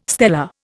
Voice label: "stela", Indian